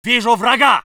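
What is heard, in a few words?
voice soviet infantry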